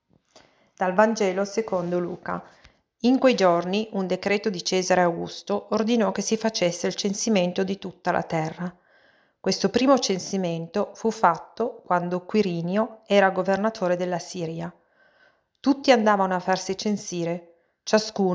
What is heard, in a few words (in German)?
Weihnachtsevangelium italienisch